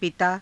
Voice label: "pitaa", Hindi